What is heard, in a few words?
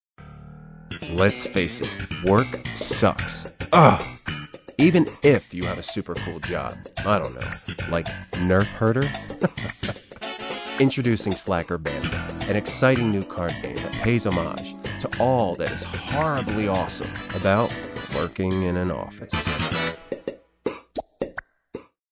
card game narrator music